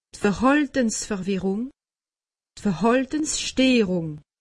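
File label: "verhaltensverwirrung", Alsatian; medecine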